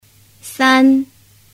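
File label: "san", Chinese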